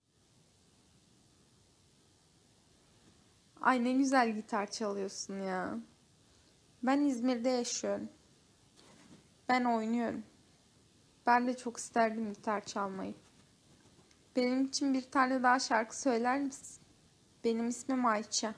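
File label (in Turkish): fake kız sesleri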